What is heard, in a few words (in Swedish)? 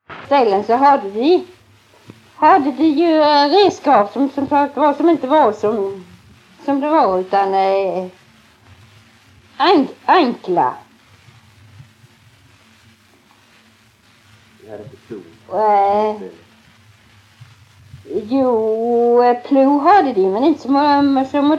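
Tving Blekinge, dialekter svenska sagor; Folkminnen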